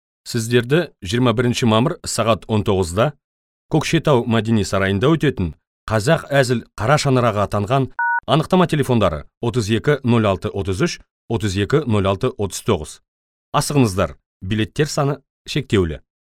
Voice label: kazakh male